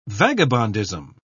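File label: "vagabondism", British English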